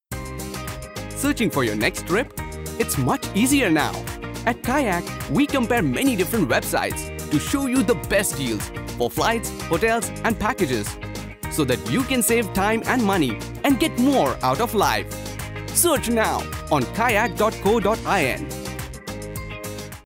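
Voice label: English Commercial; Kayak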